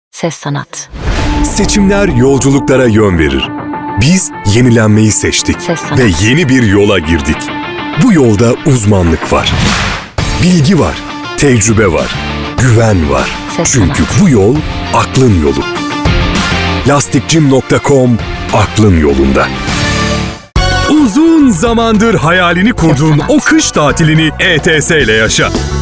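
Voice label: Turkish, male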